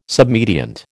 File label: "submediant", American